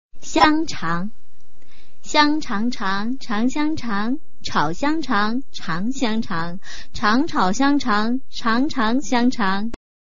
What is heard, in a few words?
chinese tongue twister